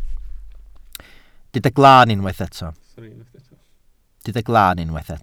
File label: "glaan", welsh